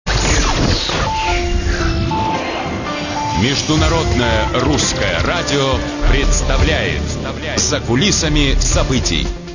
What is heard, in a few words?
Russian International Radio